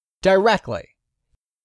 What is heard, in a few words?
"DIRECTLY", english; 3000 words